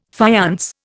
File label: "faience", Indian